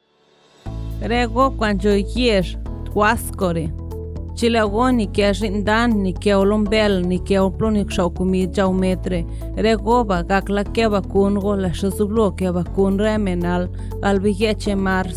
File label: Zapoteco; Wasco; Vaccine Radio Spots; covid19